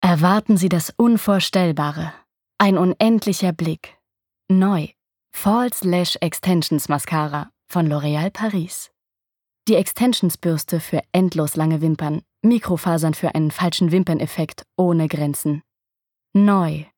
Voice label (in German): werbung